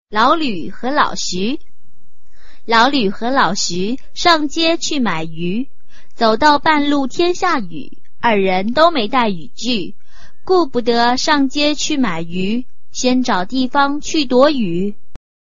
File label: chinese tongue twister